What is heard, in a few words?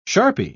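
"sharpie", British English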